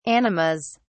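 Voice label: "animas", British English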